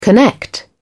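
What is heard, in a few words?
"connect", British English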